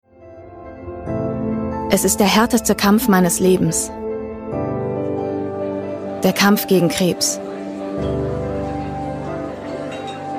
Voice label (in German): Imagefilm; DKMS